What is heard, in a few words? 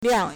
"liang2", Chinese